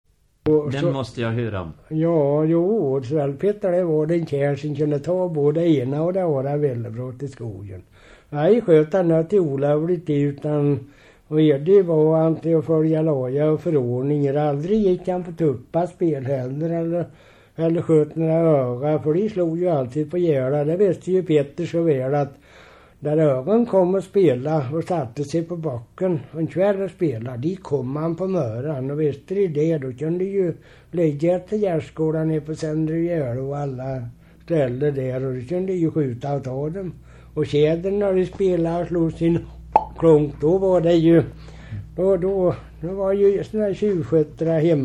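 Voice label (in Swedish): Korsberga Finnerödja Västergötland, dialekter; Svenska sagor; Folkminnen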